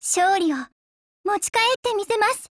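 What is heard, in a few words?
GO ATTACK Japanese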